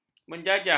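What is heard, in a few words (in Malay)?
"menjajah", Malay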